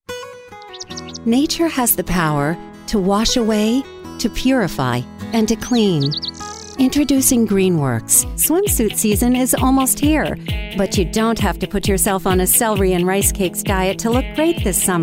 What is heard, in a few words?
Commercial